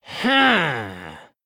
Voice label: Male Gnome Thinking

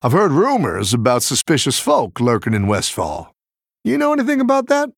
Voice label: Male Human Idle

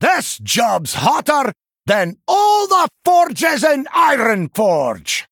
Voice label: Male Dwarf Bark; Brann Bronzebeard

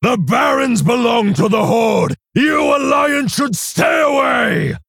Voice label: Male Orc; Kargal; Xyrella Mission1

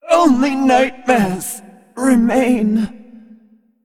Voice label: Female Green Dragon Death

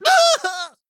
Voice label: Male Goblin Death

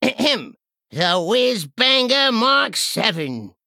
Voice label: Male Goblin Play

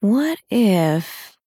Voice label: Female Dryad Thinking

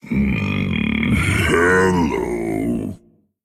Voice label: Male Dragon Evil Fight Greetings

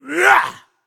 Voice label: Male Undead Attack

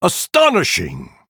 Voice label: Male Night Elf Wow

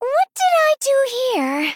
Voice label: Female Gnome Hero Thinking

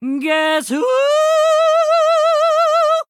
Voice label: Female Night Elf Play

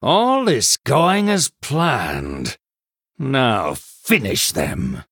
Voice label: Male Human First Place

